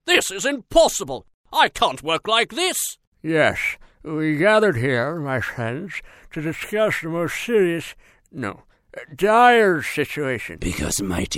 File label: character voices